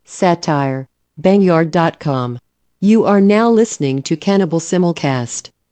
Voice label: voiceover

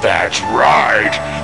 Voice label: "that's right", male

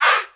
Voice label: "hey", shout whisper

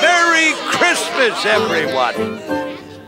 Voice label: merry christmas everyone male; Voice; xmas

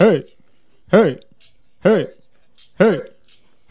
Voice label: "hey hey hey hey" LOOPED, voice; ooh ahh

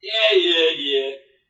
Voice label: yeah yeah yeah sarcastic

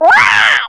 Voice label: "what" boy; ooh ahh

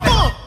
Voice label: "uh" stab

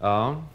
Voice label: "oh" male; ooh ahh

